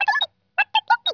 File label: vox droid